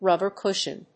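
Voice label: "rubber cushion", English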